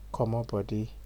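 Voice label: "comot body", Nigerian Pidgin, male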